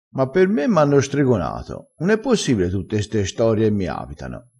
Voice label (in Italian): "stregonato", crespinese